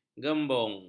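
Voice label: "gembung", Malay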